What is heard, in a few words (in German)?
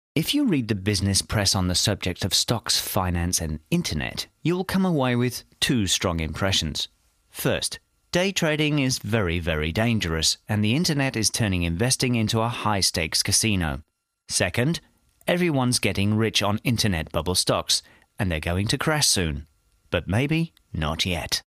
uk englisch; LOFT VOICES